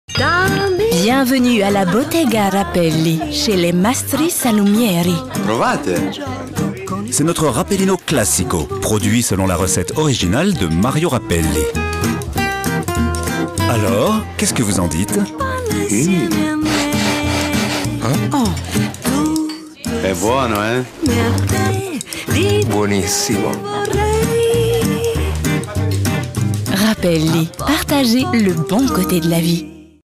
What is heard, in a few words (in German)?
FR Cool; Fremdtake